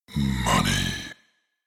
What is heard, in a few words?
"Money" spoken, The word 'Money' spoken in deep male voice.; Recorded Voice